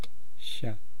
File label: "sha", east cree syllabics